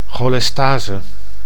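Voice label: "cholestase", Dutch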